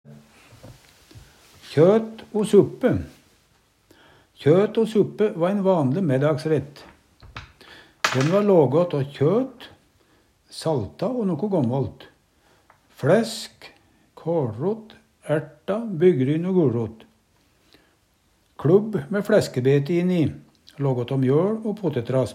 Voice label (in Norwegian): kjot o suppe, Numedalsmål